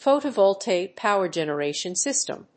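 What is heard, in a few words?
"photovoltaic power generation system", English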